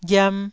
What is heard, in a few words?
"ghiam1", Taiwanese